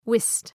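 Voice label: "whist", English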